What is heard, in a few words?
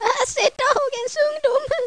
Old Woman; Swedish